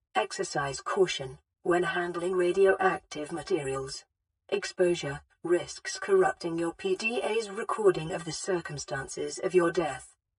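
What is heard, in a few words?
Nuclear Reactor voice over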